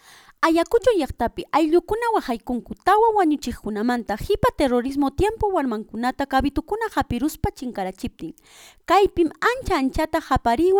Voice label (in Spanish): VOZ EN OFF, QUECHUA; ayacucho; QUECHUA QUINKUN CASO CABITOS; TULLUNKUNATA HUYKUN AYLLUNKUNAMAN